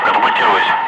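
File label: Russian speech, Ka-50 Wingman; Messages ejecting; DCS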